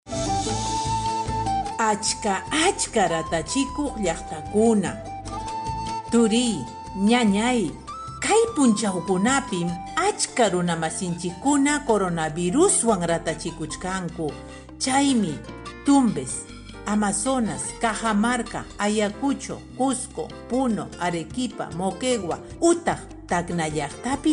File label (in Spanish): quechua chanka nuevas medidas, spot de radio; precauciones al salir de mi comunidad